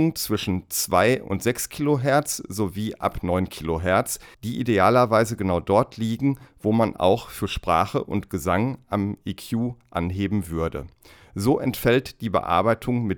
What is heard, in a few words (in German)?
lewitt pure tube sprachbeispiel